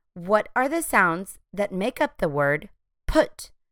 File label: put phonemic segmentation